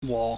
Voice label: "wo", pingyam